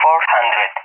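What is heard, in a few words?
"400", English, Spain; Numbers; DCS; Allied Flight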